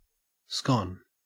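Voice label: "scone", Australian English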